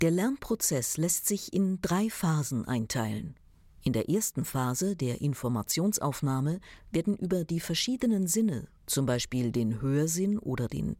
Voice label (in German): erklaerfilm mix serioes freundlich, Sprecherin